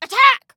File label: Attack, Female